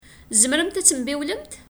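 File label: Kabyle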